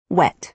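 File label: "wet", American English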